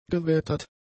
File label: "gewettert", German; adjectives